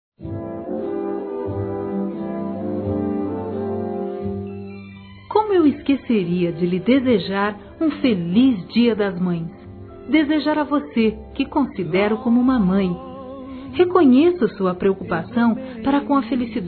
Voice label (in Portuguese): SOGRA, SEU CARINHO DE MÃE, fem; Telemensagens; Telemensagens 2017